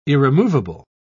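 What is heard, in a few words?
"irremovable", British English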